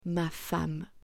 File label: "ma femme", French